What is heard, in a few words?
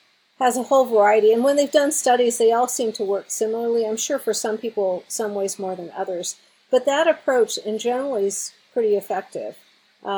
computer read naturally